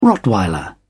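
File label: "rottweiler", British English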